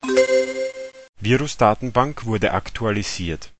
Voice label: blink viero state banken bloop, transit terminal announcements; VOCALS and SPOKEN WORD